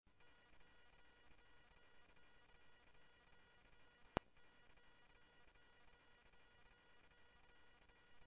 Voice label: scanner audio trunk

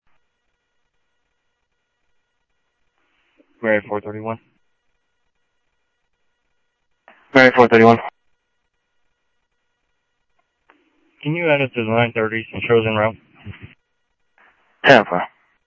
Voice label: scanner audio, Sheriff

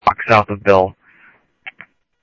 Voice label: Central Sheriff Central, scanner audio

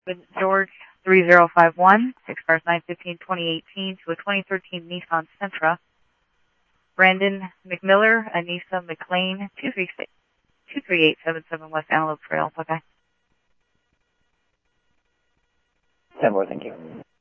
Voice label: Interop Mutual Aid, scanner audio

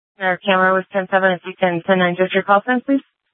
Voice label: Sheriff, scanner

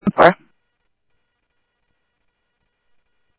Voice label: South Scottsdale Sheriff, scanner audio

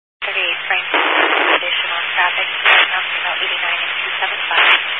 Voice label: Highway Patrol, Phoenix Metro West Area, scanner

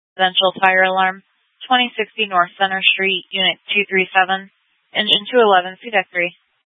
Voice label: FD Dpts VHF 156.240 Patch

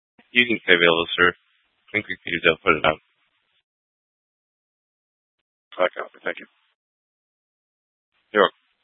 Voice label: Fire Departments, scanner

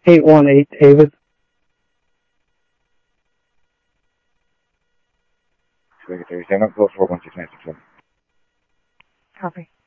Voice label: scanner audio trunk

